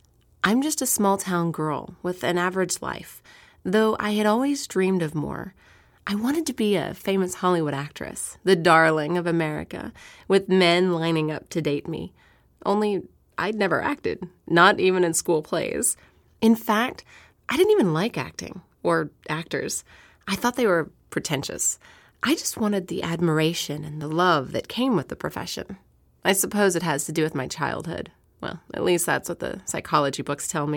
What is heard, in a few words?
Young Adult, Audiobooks